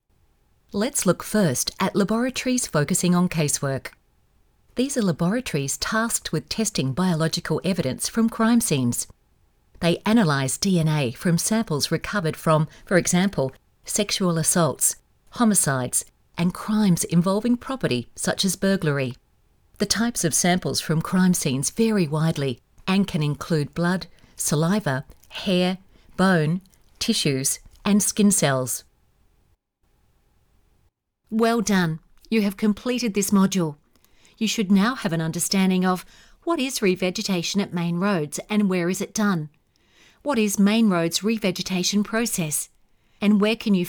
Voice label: Documentary

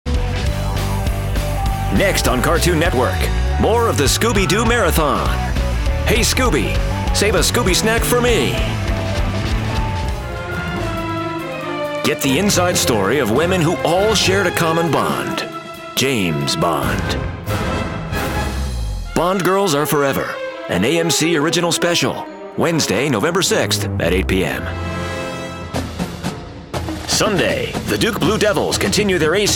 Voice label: Imaging

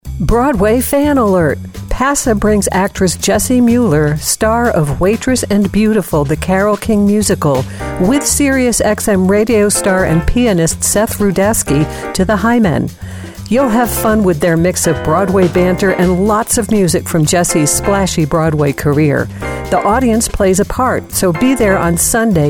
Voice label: PSA

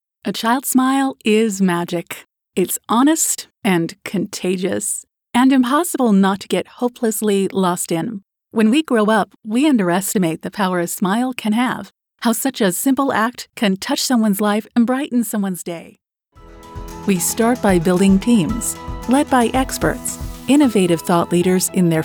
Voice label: NATURAL SPEAKING

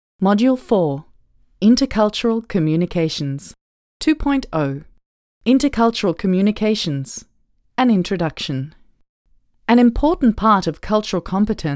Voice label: ELearning

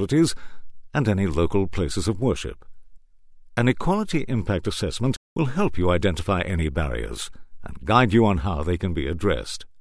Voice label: Office move elearning

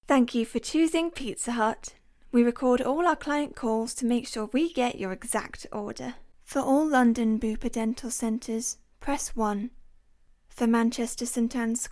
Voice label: On Hold

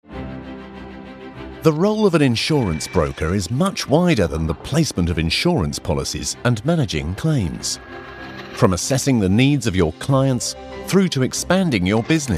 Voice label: Corporate

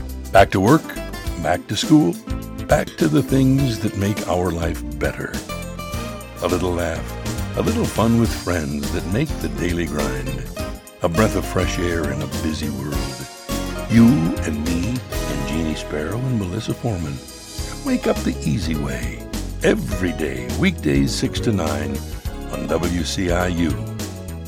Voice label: Morning Show Promo

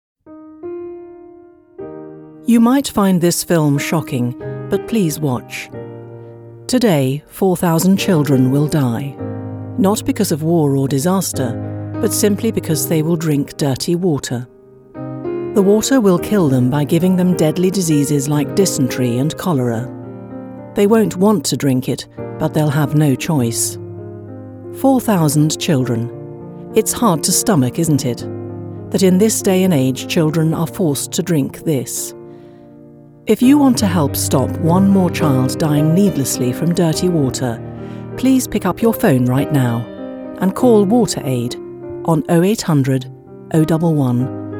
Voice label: Ad; Water Aid

